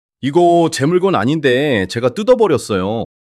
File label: fluster